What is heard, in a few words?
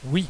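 "yes", French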